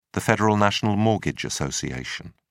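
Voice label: "federal national mortgage association", British English, dictionary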